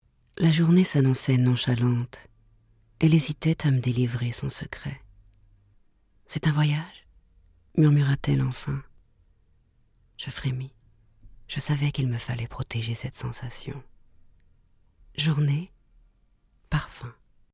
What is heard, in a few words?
fr audio